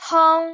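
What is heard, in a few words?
"hong1", Cantonese; microsoft Hiu Maan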